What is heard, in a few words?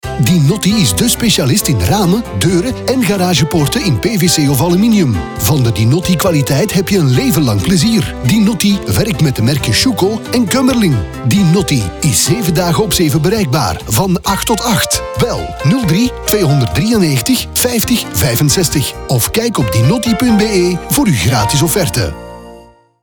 Radiospot; Dinotti